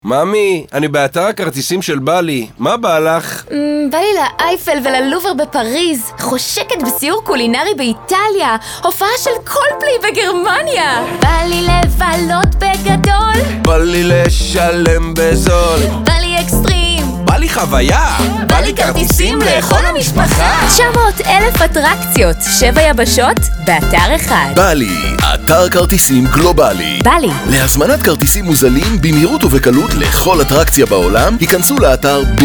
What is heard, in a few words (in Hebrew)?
פתיח גבר